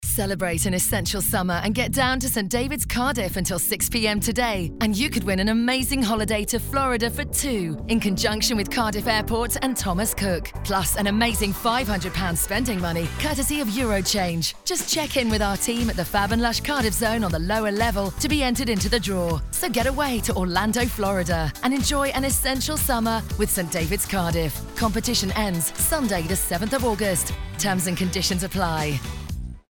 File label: Radio Ad; St Davids Cardiff